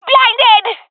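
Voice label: blinded, female; player vo; Counter Strike Model Pack; CS:GO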